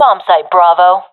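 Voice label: loc b, professional female; Counter Strike Model Pack; CS:GO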